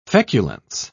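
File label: "feculence", British English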